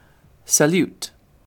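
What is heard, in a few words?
"salute", British English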